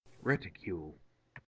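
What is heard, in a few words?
"reticule", US English; pronunciations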